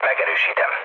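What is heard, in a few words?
player killed enemy, mood low, Hungarian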